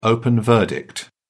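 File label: "open verdict", British English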